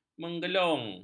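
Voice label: "menggelung", Malay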